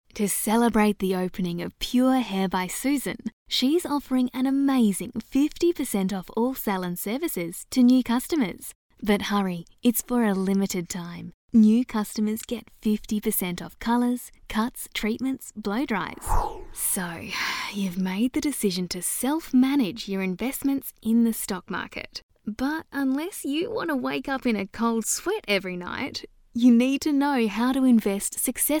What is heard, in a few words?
Soft Sell